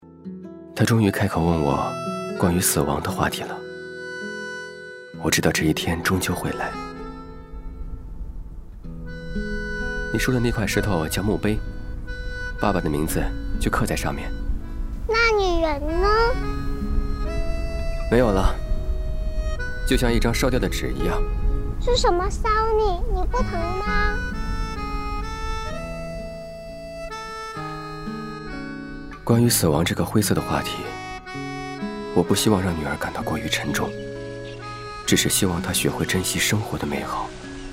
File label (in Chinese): 其他 微电影 角色第一人称旁白自然父亲, 男